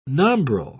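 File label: "nombril", British English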